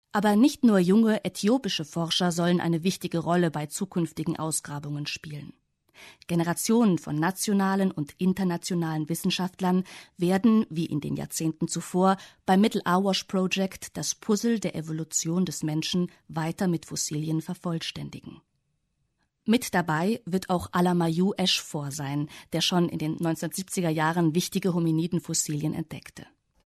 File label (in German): sprachdemo feature; wdr